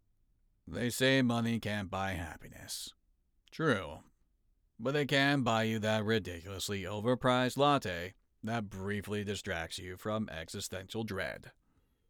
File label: Commercial sample Wry, Voice Actor